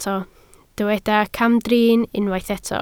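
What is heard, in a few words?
"camdrin" Welsh, spoken word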